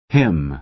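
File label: "him", American English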